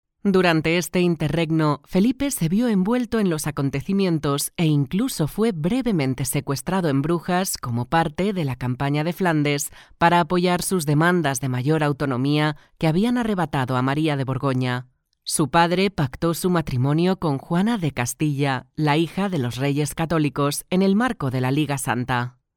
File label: locutora spanish voiceover